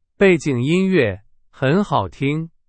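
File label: Chinese; hsk4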